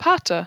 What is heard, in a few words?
"pata" longer